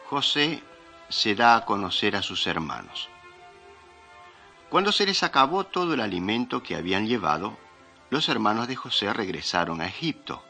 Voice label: mighty men of god spanish latin america

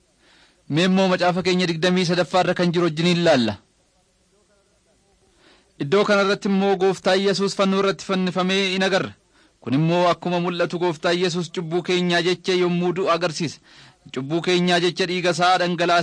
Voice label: oromo wellega; on trial for god

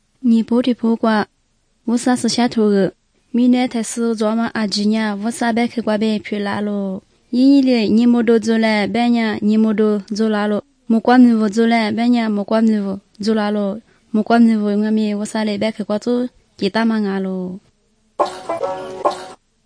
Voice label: good news lisu southern, drama

